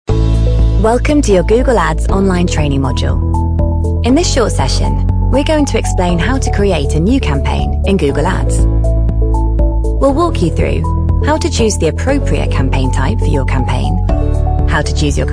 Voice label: english british explainer videos; locutora británica, originaria de Bristol

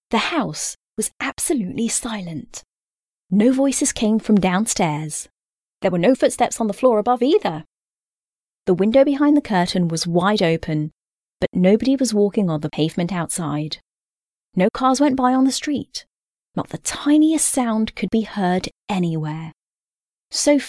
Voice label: english british audiobooks

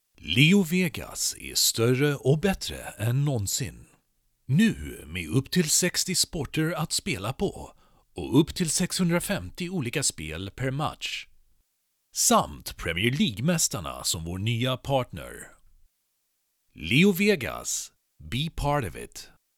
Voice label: swedish promos

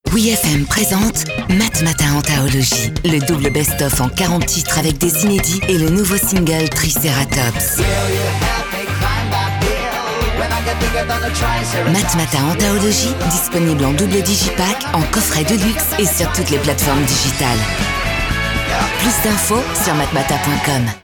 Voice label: french promos

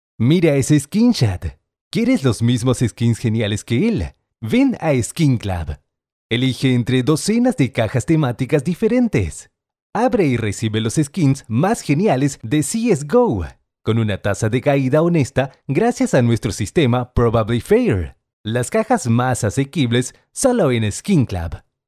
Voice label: spanish latin american commercial

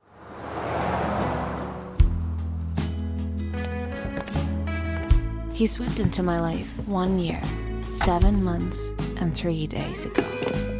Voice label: english video games

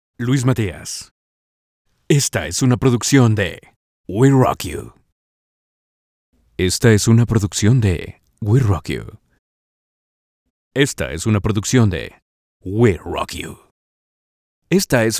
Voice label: spanish latin american podcasts

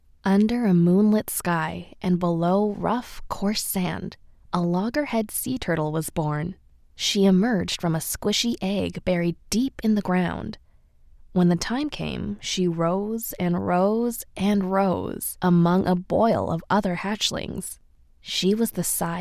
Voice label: english american audiobooks

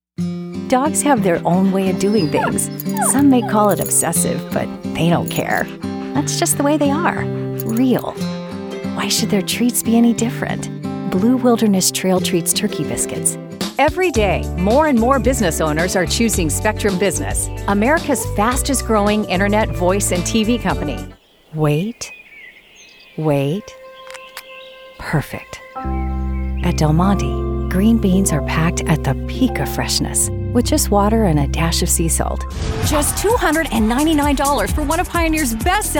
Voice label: english american commercial

